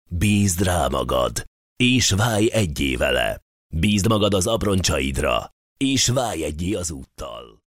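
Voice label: Hungarian, male, commercial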